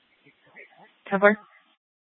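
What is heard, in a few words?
Scottsdale PD North Dispatch; Nth Dispatch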